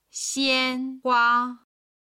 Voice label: "xianhua", chinese